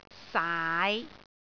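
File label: "saai5", Cantonese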